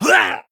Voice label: Male Dwarf Death

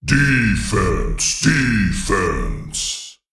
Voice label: Male Golem Play

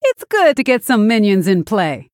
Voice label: Female Human Recruit Small Minion

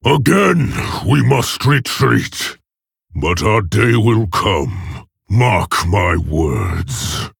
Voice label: Male Orc; Story Minion Varok; Story Uther Mission3 Victory

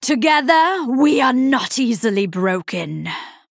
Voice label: Female Night Elf

